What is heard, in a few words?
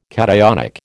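"cationic", American English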